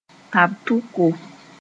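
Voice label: "tab tʋko", Mooré; tobacco box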